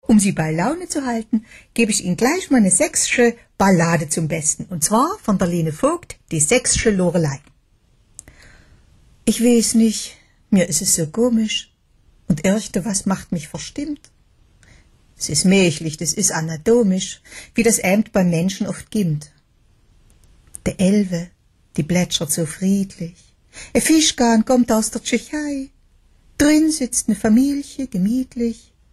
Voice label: Dialekt Saechsisch

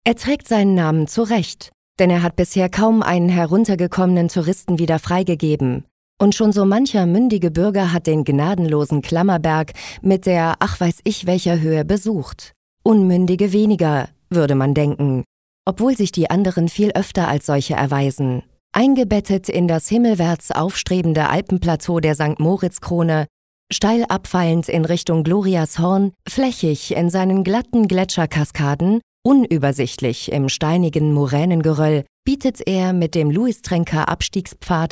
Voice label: Kommentar Neutral

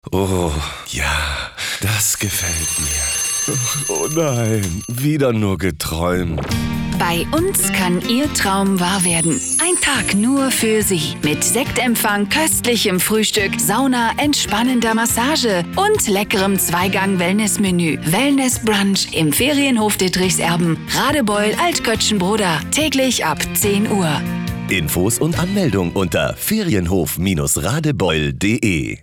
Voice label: Werbung Dynamisch

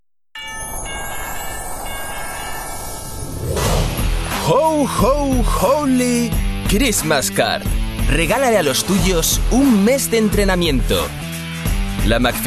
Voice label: ESP Dynamisch

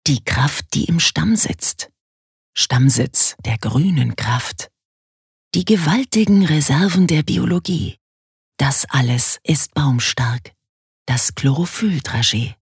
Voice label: Werbung Sanft

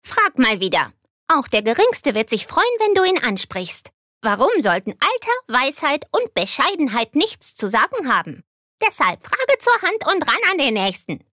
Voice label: Charge Comic

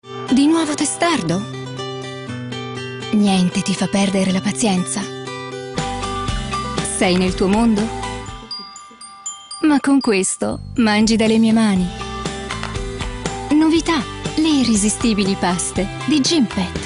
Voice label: IT Werbung Freundlich

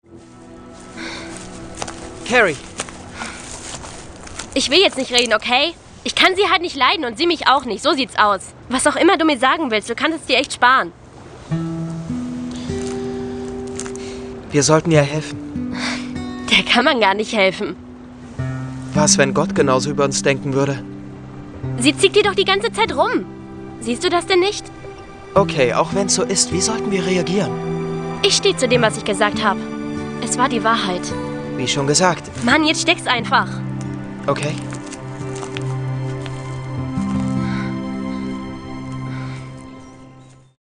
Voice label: Schauspiel Dynamisch

